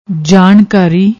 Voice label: "jaankaari", Punjabi

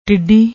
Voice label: "tiddi", Punjabi

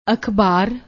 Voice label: "akhbar", Punjabi

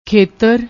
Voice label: "khetar", Punjabi; ਖੇਤਰ

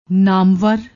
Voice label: "naamvar", Punjabi

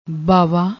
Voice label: "baawa", Punjabi

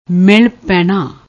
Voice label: "mil painaa", Punjabi

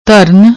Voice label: "dharn", Punjabi; ਧਰਨ

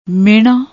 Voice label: "mehnna", Punjabi

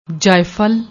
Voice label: "jaifal", Punjabi

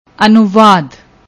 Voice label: "anubad", Punjabi; ਅਨੁਵਾਦ

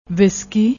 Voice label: "wiski", Punjabi; ਵਿਸਕੀ

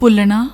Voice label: "bhullna", Punjabi; ਭੁੱਲਣਾ

